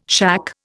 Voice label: "shack", Indian